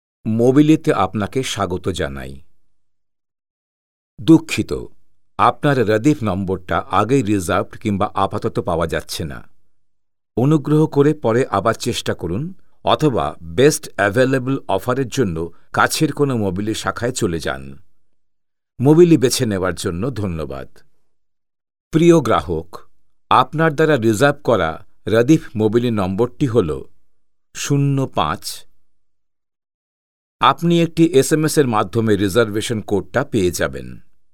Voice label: Bengali Male Bangladeshi